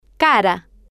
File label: "cara", spanish